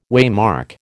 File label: "waymark", American